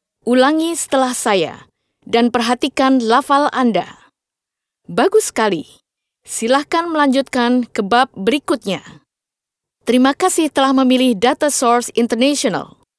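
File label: INDO female corporate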